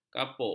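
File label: "kapuk", Malay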